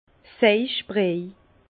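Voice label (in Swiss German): "seichbriej", Alsace Bas Rhin; vin; soupe d’urine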